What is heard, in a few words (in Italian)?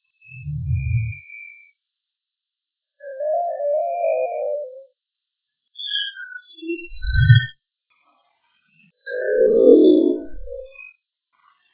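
urlo si si può possibile si può; Castello Monselice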